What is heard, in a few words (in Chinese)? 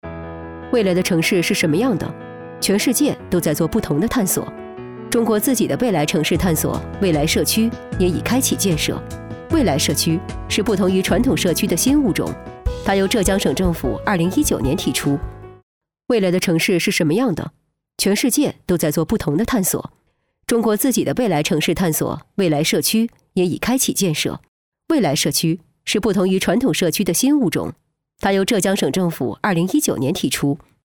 女 宣传片 个性中性音 未来城市